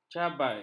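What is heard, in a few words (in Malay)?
"cabai", Malay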